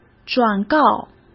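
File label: "转告", Mandarin Chinese; chinese-flashcards; hsk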